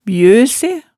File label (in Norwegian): "bjø se", hemsedals maalet tale